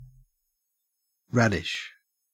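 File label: "radish", Australian English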